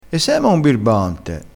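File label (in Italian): "birbante", vocabolario dialettale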